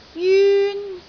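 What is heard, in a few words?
"jyun1", Cantonese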